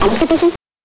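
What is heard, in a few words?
"Exhibition", voice; NES DPCM; MIDINES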